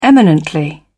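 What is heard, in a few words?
"eminently", British English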